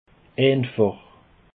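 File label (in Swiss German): "eifach", Alsatian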